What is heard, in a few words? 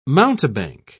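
"mountebank", British English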